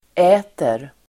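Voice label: "äter", Swedish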